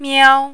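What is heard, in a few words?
"miao", Mandarin, first tone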